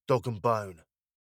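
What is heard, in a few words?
dog and bone, British English pronunciation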